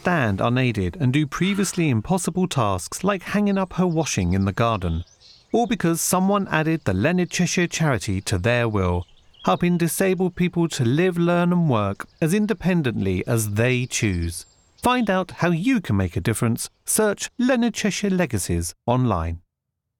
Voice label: UK Radio Exercise Bike no music SFX; LEONARD CHESHIRE